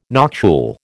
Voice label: "noctule", American